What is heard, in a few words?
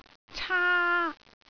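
"caa1", Cantonese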